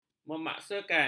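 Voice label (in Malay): "memaksakan", Malay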